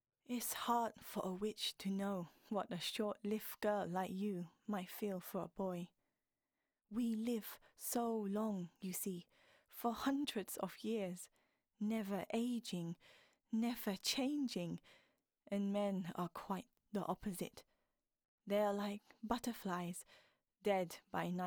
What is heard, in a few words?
chinese accent